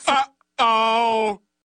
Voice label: Uh Oh